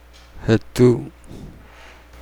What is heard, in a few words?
"star", Nukuoro